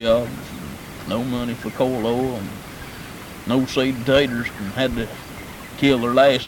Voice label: Conversation with 30 year old white male Pettigrew Arkansas, american english dialect recordings